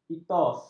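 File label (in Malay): "etos", Malay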